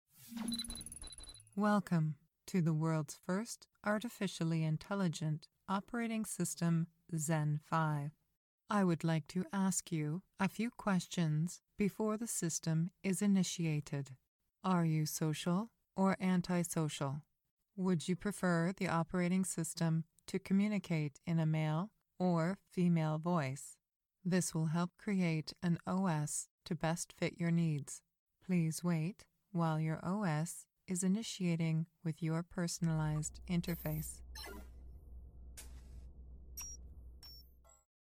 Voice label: AI Computer Alexa

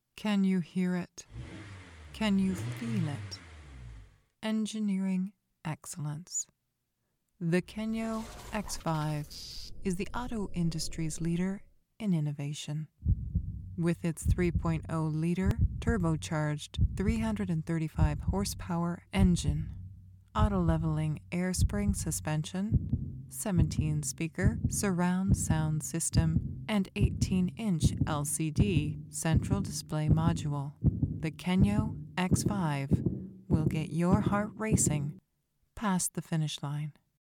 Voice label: Dramatic automotive informative, voice; voice demos

